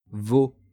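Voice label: "vos", French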